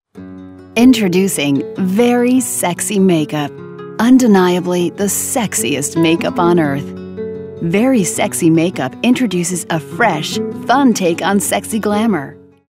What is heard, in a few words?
sexy